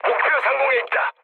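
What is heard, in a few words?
Korean near waypoint mood low; War Thunder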